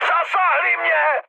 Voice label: aircraft damaged, speech cze, mood high; War Thunder